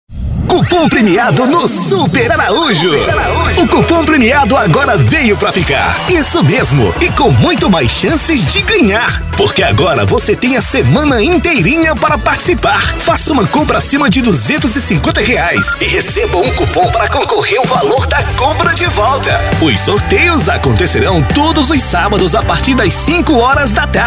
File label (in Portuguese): comercial